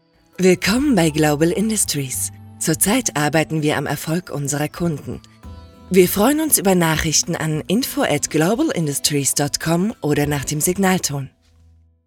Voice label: Telefonansage

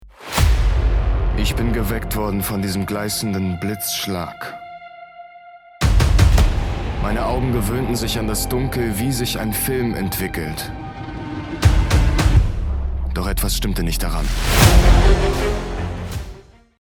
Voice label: trailer theater; angstbeisser

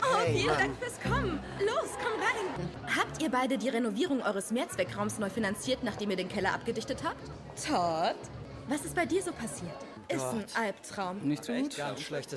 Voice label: Synchron Smalltalk

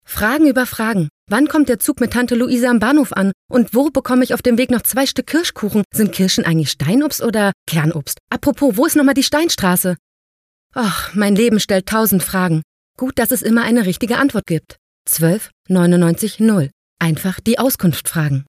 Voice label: Auskunft

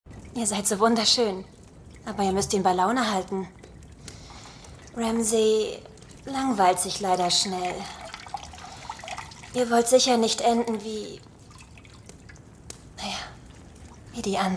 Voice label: Synchron; Game of thrones

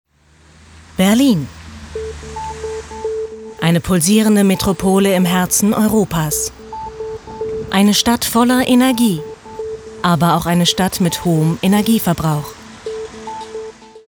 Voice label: Imagefilm; Berliner Senat; Atmosfilm

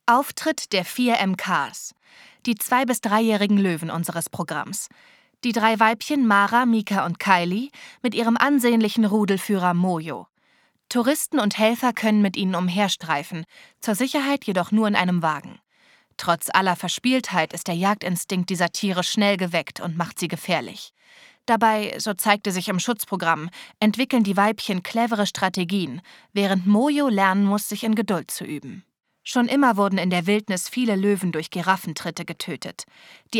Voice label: Doku

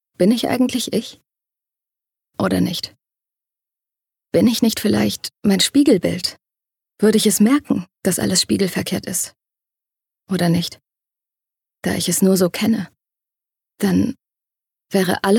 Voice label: Innerer Monolog

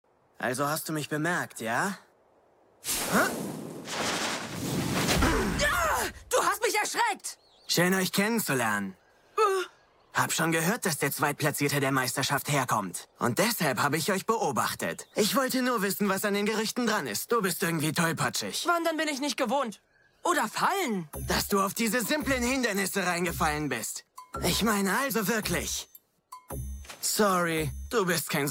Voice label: Synchron Anime

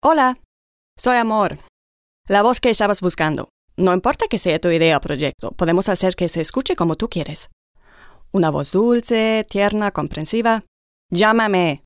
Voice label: Spanisch; La voz

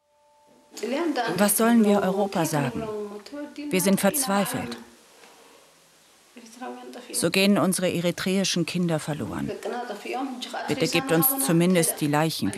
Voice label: Dokuvoiceover; ARD

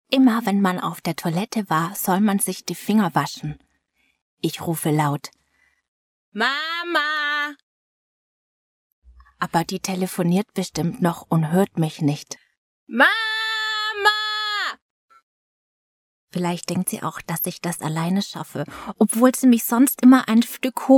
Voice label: Kinderstimme

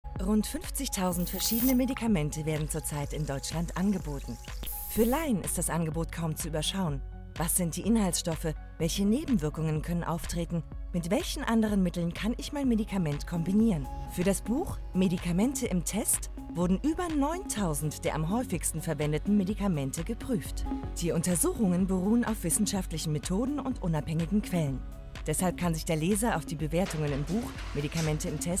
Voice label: warentest werbung; Stiftung Warentest

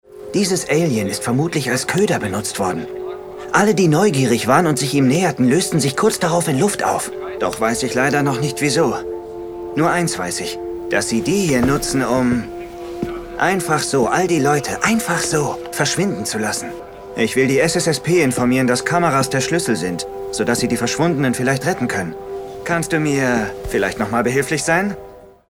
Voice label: abenteuerlich mysterioes; Anime; Ultraman

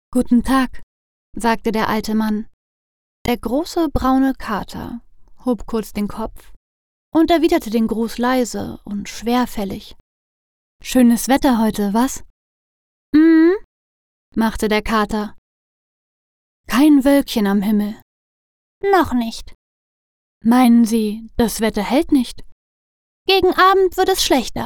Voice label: Hoerbuch

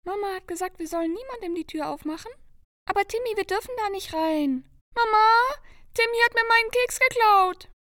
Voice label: Kinderstimme

